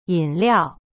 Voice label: "饮料 yin liao", Chinese; cyberchinese